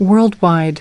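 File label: "worldwide", English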